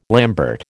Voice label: "lambert", American

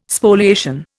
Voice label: "Spoliation", Indian

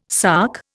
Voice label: "sark", Indian

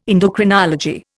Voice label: "endocrinology", Indian

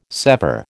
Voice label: "sever", American

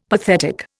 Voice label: "pathetic", Indian; Dictionary

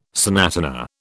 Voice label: "sonatina", British

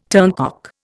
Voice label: "turncock", Indian

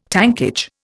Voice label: "tankage", Indian

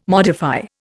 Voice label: "modify", Indian

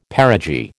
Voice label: "perigee", American

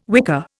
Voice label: "wicker", Indian

